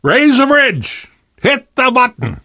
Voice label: hitting bridge button, voice; Left 4 Dead 2; Source Engine; video games; namvet

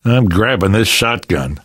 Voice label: take autoshotgun, survivor voice; source engine; left 4 dead 2; namvet

